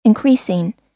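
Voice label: "increasing", American English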